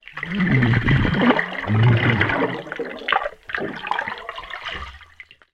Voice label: human man middle age mutter death drown underwater recording; zapsplat